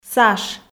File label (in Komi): "саш", f